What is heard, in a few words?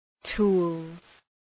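"tools", English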